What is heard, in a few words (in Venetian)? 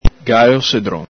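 "galo sedron", Veneto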